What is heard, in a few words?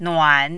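"nuan", Mandarin, third tone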